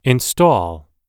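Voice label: "install", English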